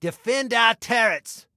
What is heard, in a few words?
Defend Turrets, Male